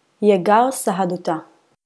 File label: Biblical Aramaic